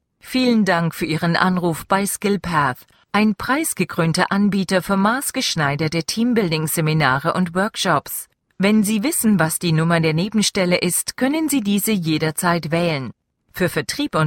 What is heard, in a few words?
German native, bilingual